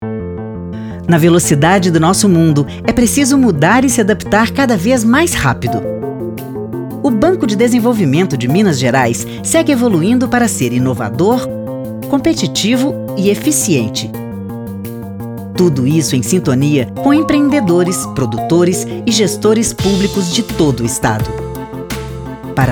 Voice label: portuguese brazilian corporate videos, voice actors